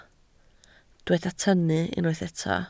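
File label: "tynnu" Welsh, spoken word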